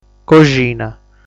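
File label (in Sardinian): "Coxina", Sardinian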